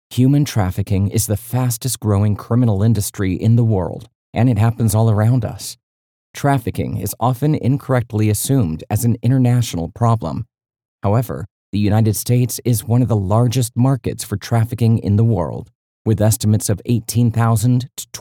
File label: Corporate Compliance Training; Voice Over Read Styles